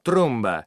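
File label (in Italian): "tromba", Italian word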